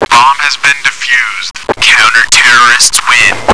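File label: bomb defused, radio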